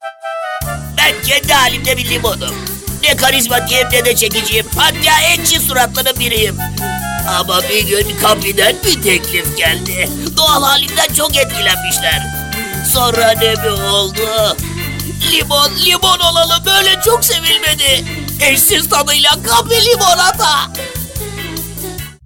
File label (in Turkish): animatik karakter; seslendirme